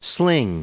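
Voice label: "sling", English, male; verb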